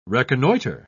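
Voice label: "reconnoiter", British English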